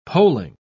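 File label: "polling", British English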